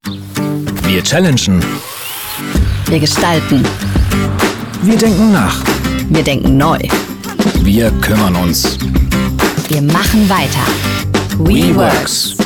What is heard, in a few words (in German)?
werbung; worx short